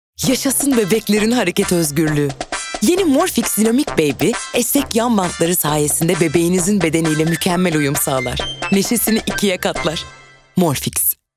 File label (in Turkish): animatik cocuk